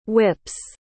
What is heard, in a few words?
"whips", pronounce